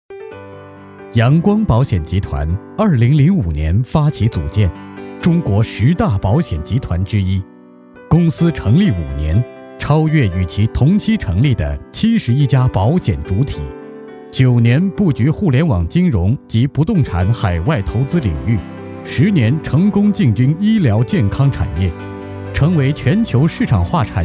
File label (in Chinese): 企业专题; 阳光保险